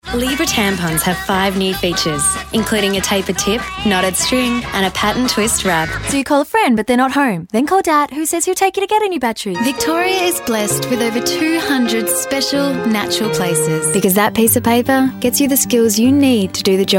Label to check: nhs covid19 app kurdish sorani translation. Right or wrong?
Wrong